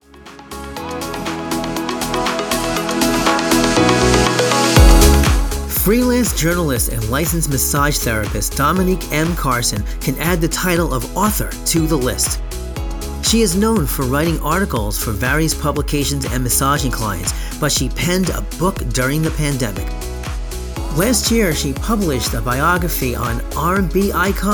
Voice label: commercial production